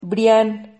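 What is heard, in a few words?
"brian", Spanish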